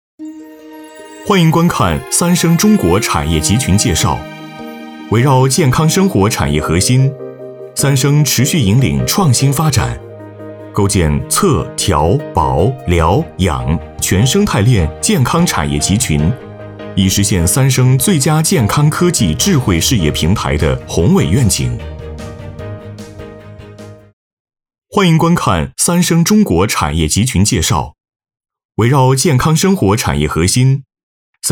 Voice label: 男 专题宣传 阳光活力大气; 三生科技

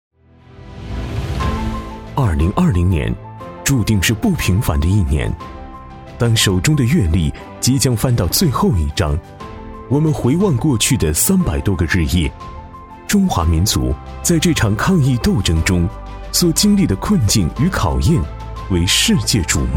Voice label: 男 公益; 中国加油2020

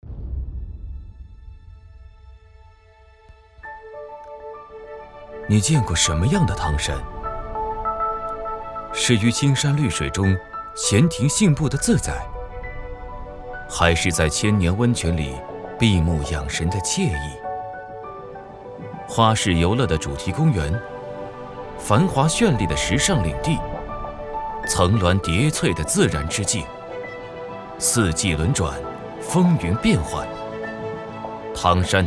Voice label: 男 航拍中国类 旅游; 汤山旅游

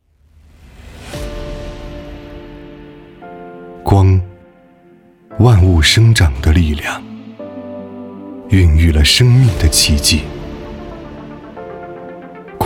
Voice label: 男 宣传片 现代科技

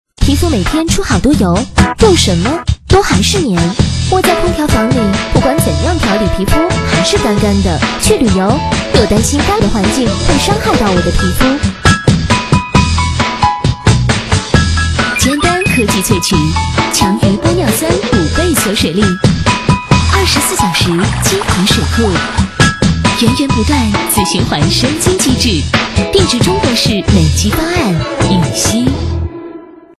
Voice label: 女 广告 洗化类; 羽西雪耳沁润系列

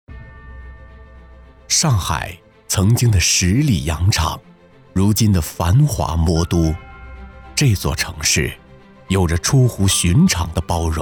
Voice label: 粤男 专题; 上海; 彭于晏

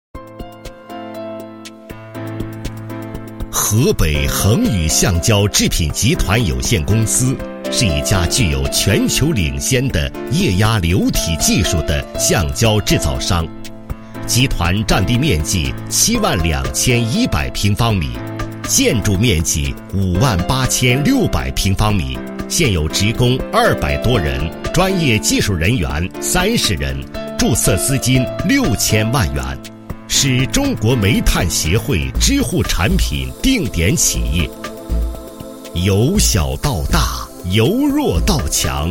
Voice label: 男-宣传片; 河北恒宇

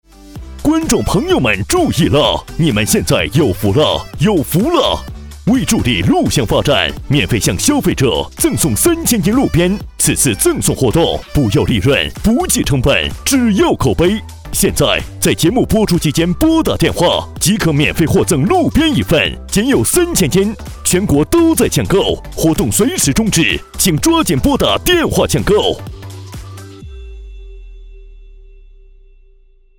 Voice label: 男 电购 医药医疗

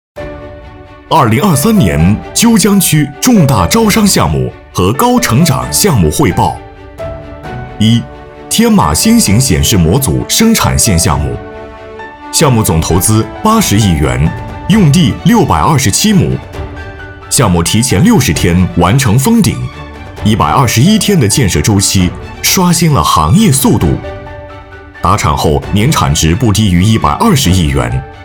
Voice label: 男 专题宣传 大气力度 项目汇报

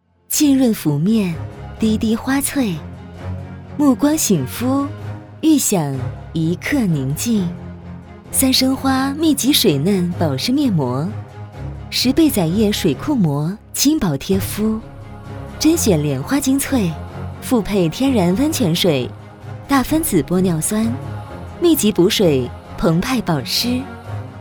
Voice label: 女 广告 面膜; 三生花密集水嫩保湿面膜

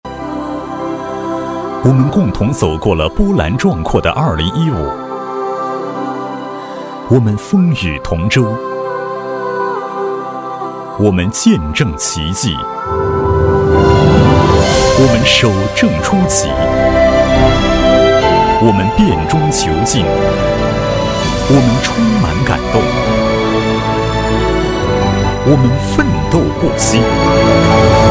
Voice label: 男 宣传片 商业类

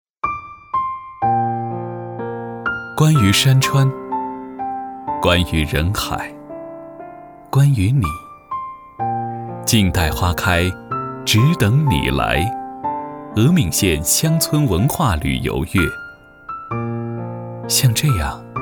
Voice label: 男 旁白 自然 讲述; 额敏县乡村文化旅游月

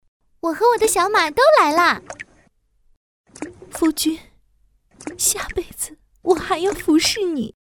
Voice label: 女 游戏角色; 小乔

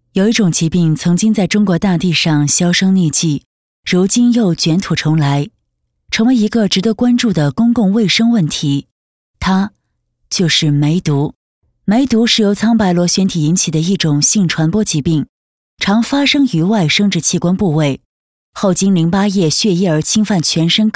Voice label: 解说 疾病防治 严肃稳重

